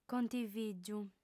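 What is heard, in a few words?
"contivìgiu", female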